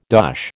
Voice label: "dosh", American

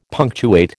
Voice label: "punctuate", American

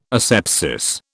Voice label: "asepsis", British

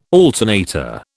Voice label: "alternator", British

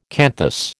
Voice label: "canthus", American

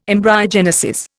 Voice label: "embryogenesis", Indian

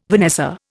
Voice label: "vanessa", Indian

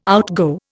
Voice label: "outgo", Indian

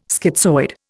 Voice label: "schizoid", Indian

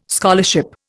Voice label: "scholarship", Indian

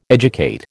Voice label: "educate", American

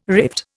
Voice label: "rift", Indian

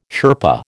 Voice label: "Sherpa", American

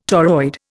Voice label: "toroid", Indian

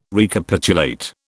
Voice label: "Recapitulate", British

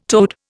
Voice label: "toad", Indian

